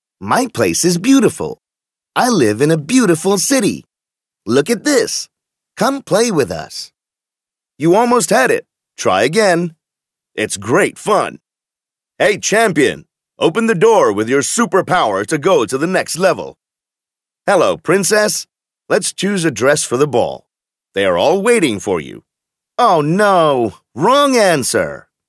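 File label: British male children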